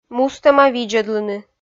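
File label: "мустӧма видзӧдлыны", Komi, female; kpv audio dict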